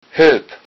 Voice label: help, low saxon

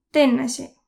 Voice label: thanks, Võro

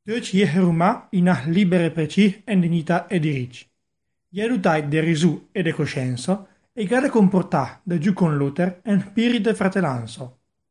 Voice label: Lombard

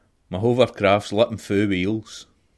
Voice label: hovercraft scots

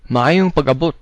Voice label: welcome, cebuano

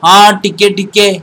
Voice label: yes a little odia

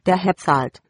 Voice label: pay, german

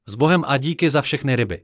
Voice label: so long, Czech

